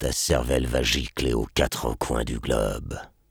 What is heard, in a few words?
sniper taunts, fr; "Ta cervelle va gicler aux quatre coins du globe."